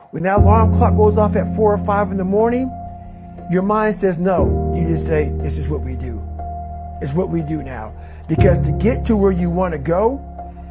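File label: motivational dialogue, english; david goggins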